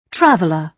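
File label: "traveller", British English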